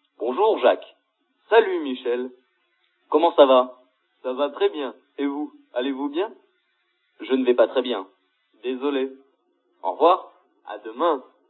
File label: French Exercise Basic Phrases